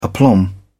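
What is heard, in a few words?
"aplomb", British English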